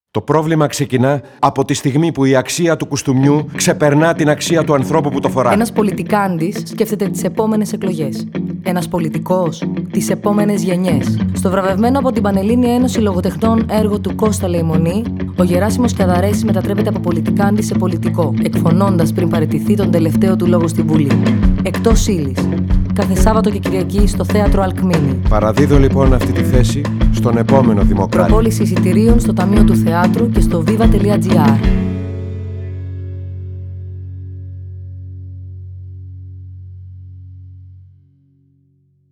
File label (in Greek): Radio Spot; Ektos YLis